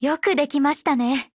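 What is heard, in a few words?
appreciate, Japanese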